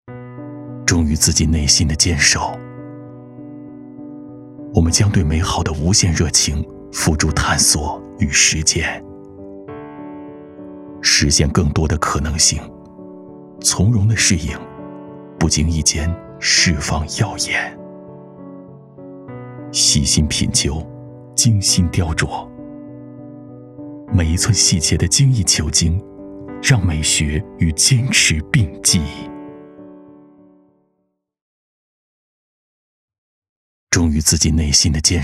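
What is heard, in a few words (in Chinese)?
宣传汽车发布会深沉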